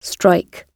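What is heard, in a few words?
"strike" British English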